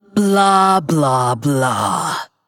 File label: Female Undead